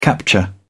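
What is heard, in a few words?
"capture", English, British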